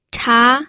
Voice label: "cha2", pinyin